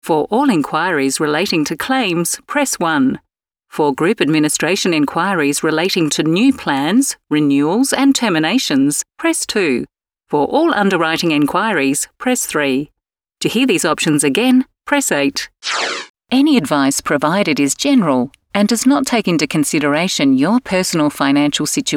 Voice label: IVR